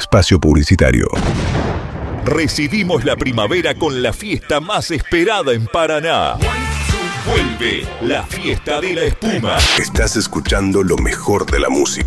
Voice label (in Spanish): DEMO DE VOCES; Demo General